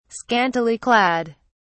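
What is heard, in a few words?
"scantily clad", British English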